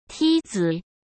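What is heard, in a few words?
"梯子", Mandarin Chinese; chinese flashcards; hsk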